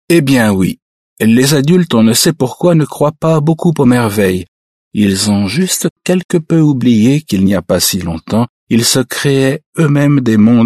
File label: french male